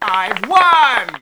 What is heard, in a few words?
Announcer Win; blackjack